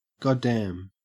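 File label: "goddamn", Australian English; pronunciations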